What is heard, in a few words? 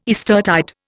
"Eastertide", Indian